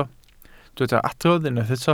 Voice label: "adrodd", Welsh

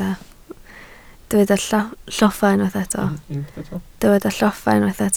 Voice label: "lloffa", Welsh

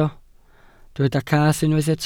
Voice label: "cath", Welsh

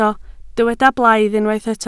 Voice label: "blaidd", Welsh

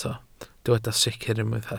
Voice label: "sicr", Welsh

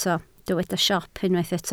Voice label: "siop", Welsh

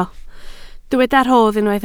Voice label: "rodd", Welsh

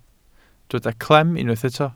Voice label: "clem", Welsh

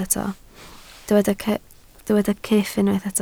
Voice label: "cyff", Welsh

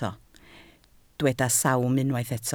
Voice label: "sawm", Welsh

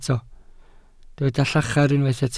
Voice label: "llachar", Welsh

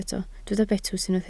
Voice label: "betws", Welsh

